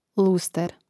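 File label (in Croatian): "luster", Croatian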